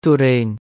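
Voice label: Khmer "ទុរេន"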